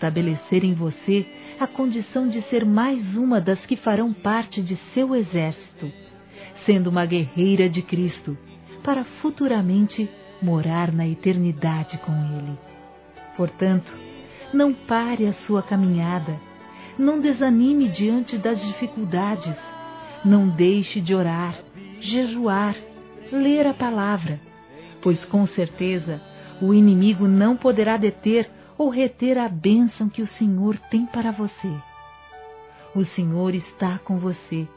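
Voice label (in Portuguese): INCENTIVO SERVIÇO DEUS, feminino; Telemensagens; Telemensagens 2017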